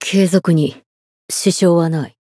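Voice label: win barely, Japanese; xianhua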